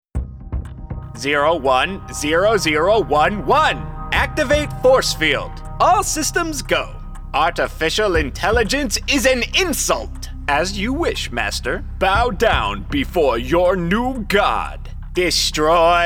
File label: game character human sound fx; aaa game character human ai